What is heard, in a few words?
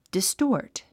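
"distort", English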